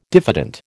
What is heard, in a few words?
"diffident", American